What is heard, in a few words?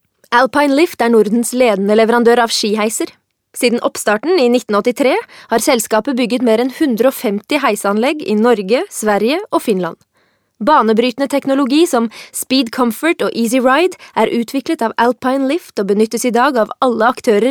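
no corp presentation, Norwegian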